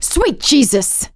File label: reaction negative, survivor voice; producer